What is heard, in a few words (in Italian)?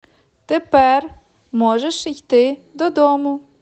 Ucraino